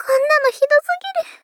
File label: Polite Despair; Konnano hido sugiru